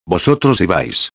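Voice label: "ibais", Spanish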